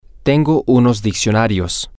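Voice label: I have the dictionary, Spanish, male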